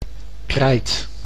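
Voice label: "krijt", Dutch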